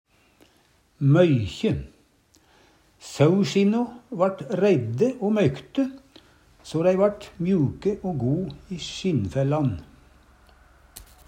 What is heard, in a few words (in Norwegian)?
moykje, Numedalsmål